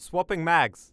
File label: reloading, Voice Lines Combat Dialogue; EndlessVendetta